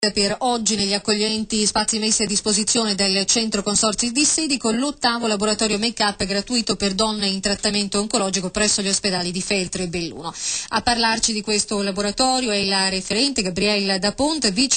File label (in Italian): radio; belluno